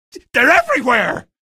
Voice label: evac enemies, male; video games; npc; source engine; episodic; episode 1